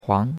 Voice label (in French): "huang2", chinois; Cours-de-Chinois